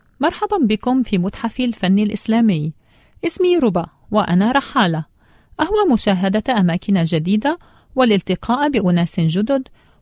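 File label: Arabic; Arabic (Iraq), Middle Eastern, Male, 30s-50s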